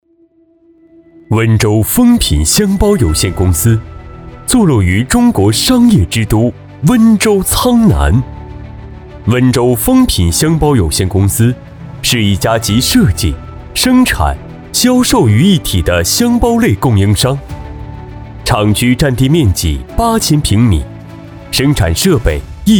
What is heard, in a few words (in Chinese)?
专题企业磁性时尚青年; 峰品箱包